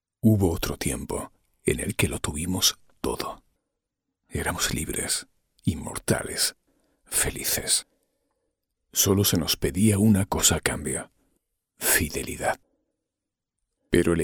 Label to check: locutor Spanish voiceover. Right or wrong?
Right